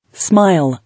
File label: "Smile", English